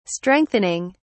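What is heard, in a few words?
"strengthening", English, British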